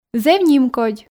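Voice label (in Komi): зэв нимкодь, Komi, female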